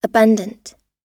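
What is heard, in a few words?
"abundant", American English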